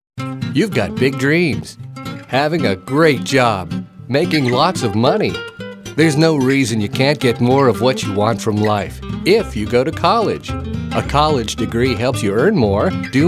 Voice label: friendly

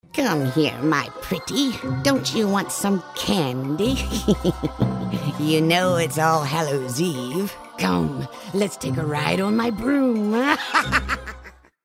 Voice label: holiday cartoon raspy

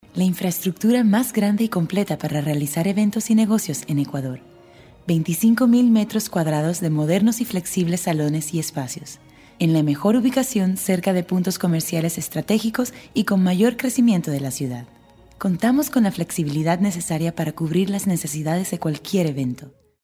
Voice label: Spanish; Convention

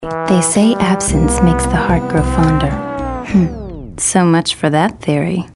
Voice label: sexy

